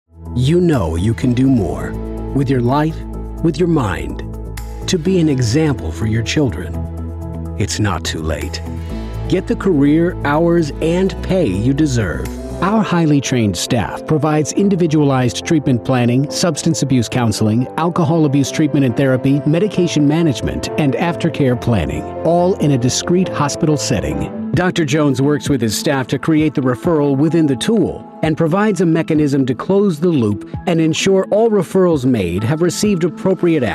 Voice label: Caring and Compassionate, voiceovers